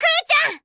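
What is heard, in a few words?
story, Japanese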